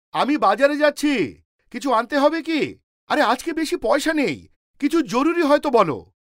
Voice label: BENGALI MALE